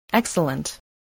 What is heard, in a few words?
"excellent", voice, english